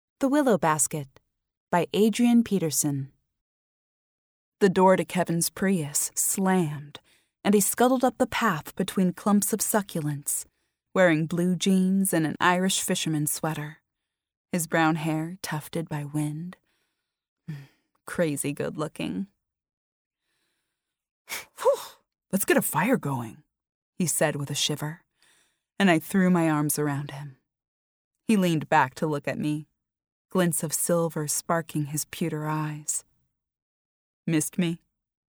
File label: audiobook narration